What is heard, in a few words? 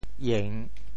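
"ieng3", Teochew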